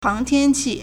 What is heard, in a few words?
"hang2tian1qi4", Chinese